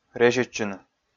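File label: "резьӧдчыны", Komi, male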